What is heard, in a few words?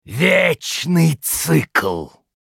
Critical Hit, ru RU; Diablo III; озвучка некроманта